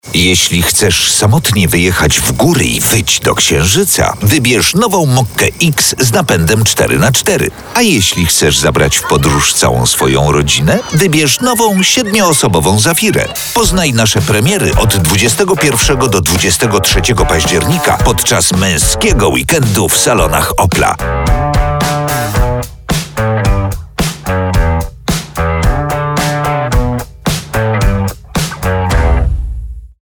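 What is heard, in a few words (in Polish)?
reklama, advert; mokka zafira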